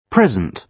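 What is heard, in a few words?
"present", British English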